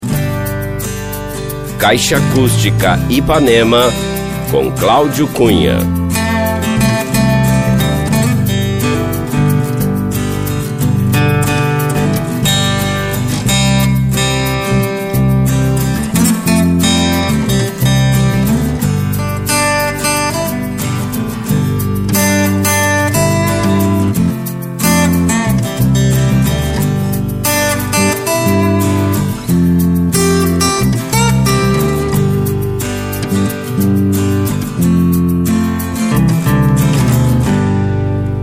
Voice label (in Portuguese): spot; vinhetas 2005; IpanemaFM